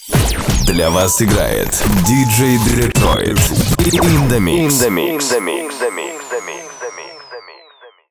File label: Jingle